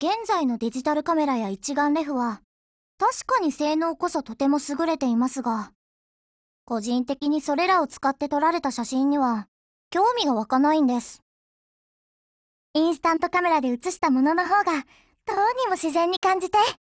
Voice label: DIALOGUE JP; girlsfrontline